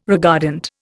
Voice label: "regardant", Indian

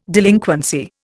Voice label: "delinquency", Indian

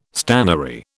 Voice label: "stannary", British

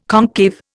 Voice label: "concave", Indian

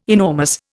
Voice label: "enormous", Indian

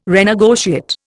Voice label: "renegotiate", Indian

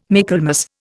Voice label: "Michaelmas", Indian

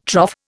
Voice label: "trough", Indian

